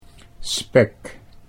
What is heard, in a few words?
"spek", Dutch